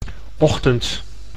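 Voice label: "ochtend", Dutch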